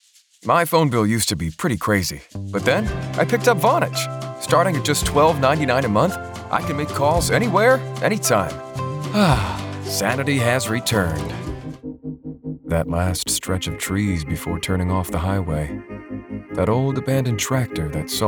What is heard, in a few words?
commercial